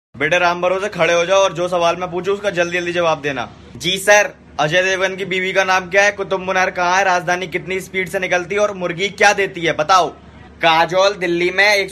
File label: Funny Dialogue Ringtone